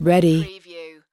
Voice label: US female "ready"; lynne publishing

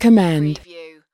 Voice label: US female, "command"; lynne publishing

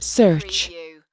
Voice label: US female "search"

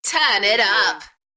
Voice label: UK female, Turn it up, Enthusiastic; beanstalk audio

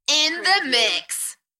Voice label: UK female In the mix Enthusiastic; beanstalk audio

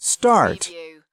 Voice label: "start", US male; lynne publishing

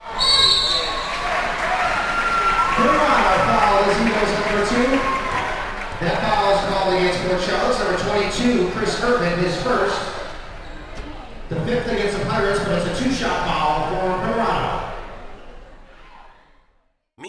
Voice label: Basketball Announcer Speaking; Basketball sound effects; Crowd and stadium ambience, announcers, cheering

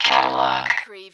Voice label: Robot voice "catalog"; lynne publishing

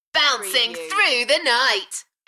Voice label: UK female Bouncing through the night Enthusiastic; beanstalk audio

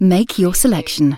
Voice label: UK female make your selection; lynne publishing

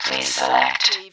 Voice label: Robot voice, please select

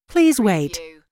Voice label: US female phone prompt Please wait; lynne publishing

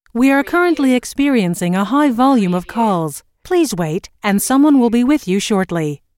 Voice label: US female phone prompt; We are currently experiencing a high volume of calls - Please wait

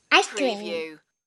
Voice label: Kid Says Ice Cream

